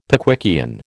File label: "Pickwickian", American